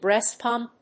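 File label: "BREAST PUMP", English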